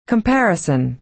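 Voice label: "comparison", American English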